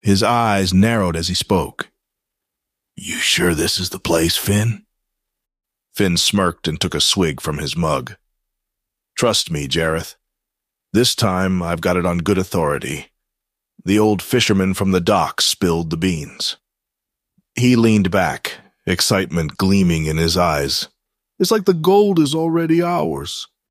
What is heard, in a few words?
voiceover sample